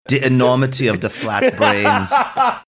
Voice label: saying the enormity of their flat brains in bad german accent